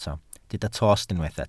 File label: "tost", Welsh